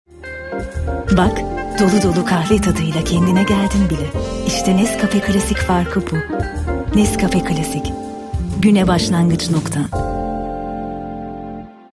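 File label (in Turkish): sicak sakin, seslendirme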